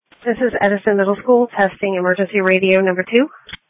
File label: Police Tactical Encrypted; sdr